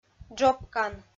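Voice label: "джопкан", Komi, female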